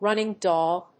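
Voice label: "running dog", English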